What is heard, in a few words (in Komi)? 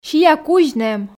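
сиа кузь нэм, Komi, female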